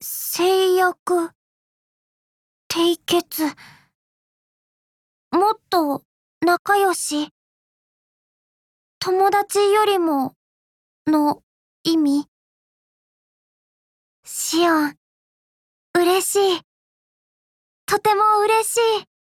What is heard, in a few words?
OATH JP; zion